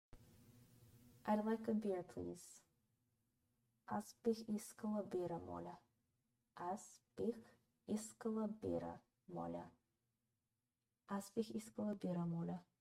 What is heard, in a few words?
BGN I'd like a bill please, Bulgarian